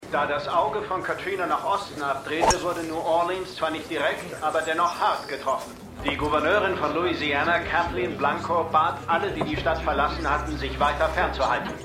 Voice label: Nachrichtensprecher; Memorial Hospital; MEMORIAL HOSPITAL - DIE TAGE NACH HURRIKAN KATRINA (DF 2022); Five Days at Memorial

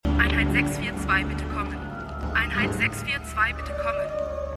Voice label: Funkstimme; Outer Limits

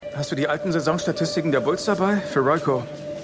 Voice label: Sportreporter; Shining Girls

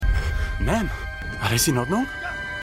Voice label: Junger Mann; Star Trek Picard

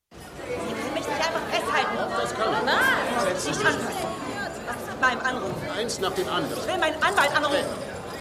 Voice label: Inhaftierte Frau; The Good Fight

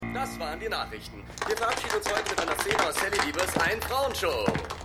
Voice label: TV Sprecher, Stimmproben; Seinfeld

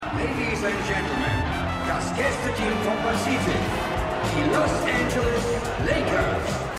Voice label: Stadionsprecher; Winning Time

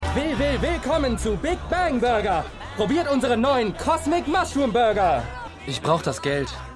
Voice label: Mann Im Alien Kostuem, Stimmproben

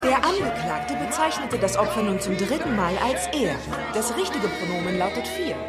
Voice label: Non Binaer Gendernde Richterin; The Good Fight

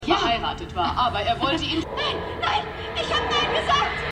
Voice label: Frau TV Ja Lacher Frau TV; Justified

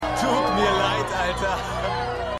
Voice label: Tut Mir Leid Student

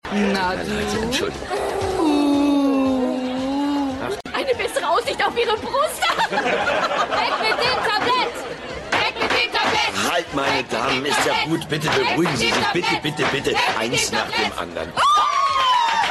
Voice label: Frauen Am Tisch

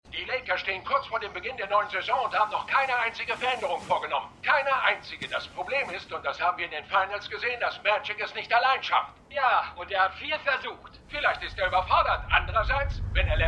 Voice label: Sportmoderator; Winning Time

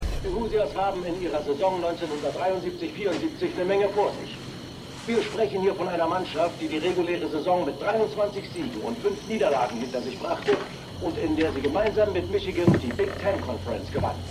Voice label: Radiosprecher; Winning Time

